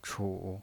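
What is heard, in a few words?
"chu", Mandarin Chinese pinyin voice, third tone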